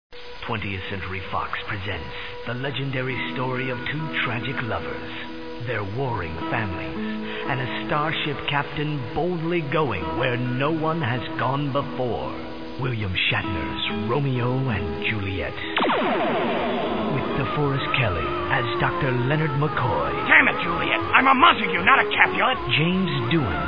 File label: Commercials; Romeo Juliette Trek; Freekin Weird Audio